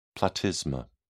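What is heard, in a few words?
"platysma", British English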